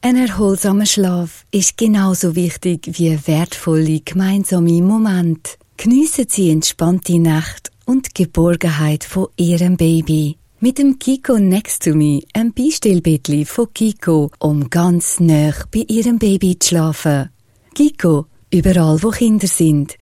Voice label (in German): funk ch schweizerdeutsch; chicco